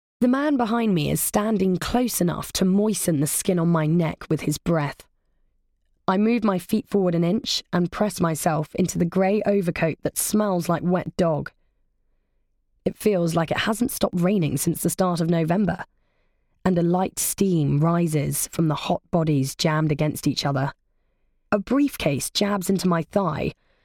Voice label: story